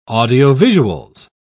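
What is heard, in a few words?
"audiovisuals", British English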